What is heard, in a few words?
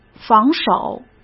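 "防守" Mandarin Chinese; hsk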